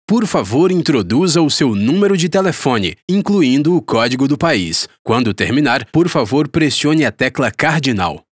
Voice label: Portuguese phone number with country code